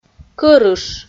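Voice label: "кӧрыш", Komi, female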